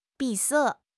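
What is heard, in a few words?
"闭塞", Mandarin Chinese; HSK; HSK6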